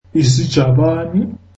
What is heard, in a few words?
zulu Japanese